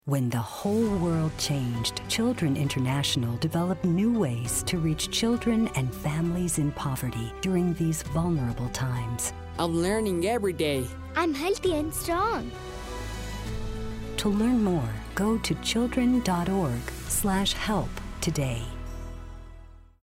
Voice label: Kids Speak English Radio; Children International